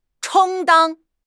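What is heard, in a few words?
"充当", Chinese; HSK6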